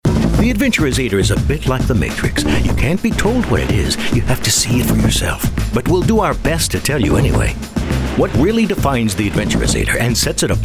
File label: Web Explainer